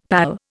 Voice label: "pal", Indian; Dictionary